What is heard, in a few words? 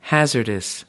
"hazardous", us